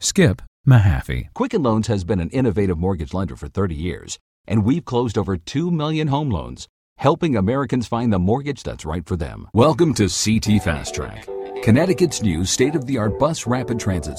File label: men, narration